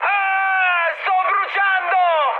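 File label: Italian on fire mood high, speech; War Thunder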